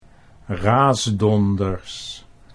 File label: "raasdonders", Dutch